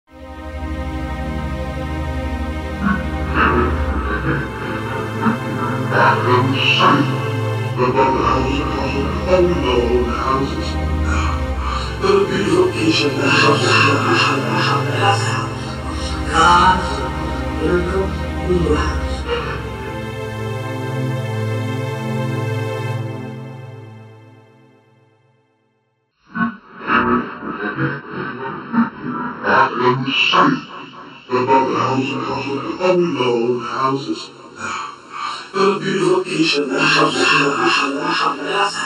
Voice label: vertigo speech resynthesis